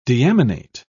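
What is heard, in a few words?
"deaminate", British English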